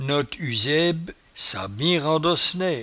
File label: "noteusebe", patois jura